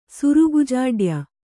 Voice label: "ಸುರುಗುಜಾಡ್ಯ", Kannada

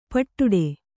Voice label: "ಪಿಡ್ಡ", Kannada

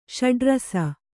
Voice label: "ಷಡುರಸ", Kannada

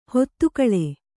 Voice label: "ಹಿತಗೊಳ್", kannada

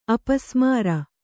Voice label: "ಅಪಸ್ಮಾರ", kannada

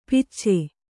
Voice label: "ಪಿಚ್ಚೆ", Kannada

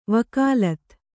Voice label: "ವಿಗಲಿತ", Kannada

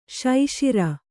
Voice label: "ಸಸಾರ", Kannada

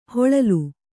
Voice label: "ಹಿಳಿಲು", Kannada

